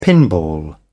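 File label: "pinball", British English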